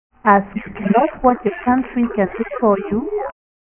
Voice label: example english